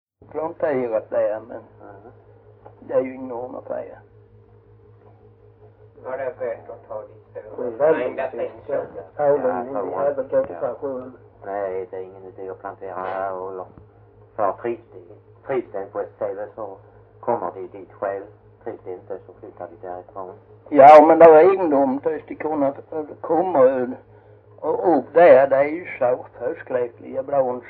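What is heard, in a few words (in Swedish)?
Jämshög Blekinge, Svenska sagor, dialekter; Folkminnen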